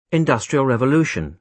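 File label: "industrial revolution", British English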